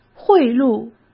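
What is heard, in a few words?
"贿赂", Mandarin Chinese; chinese flashcards; hsk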